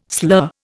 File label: "slur", Indian